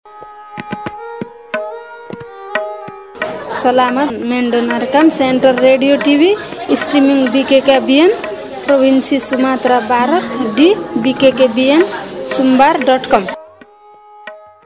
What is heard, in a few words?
greeting genre nepal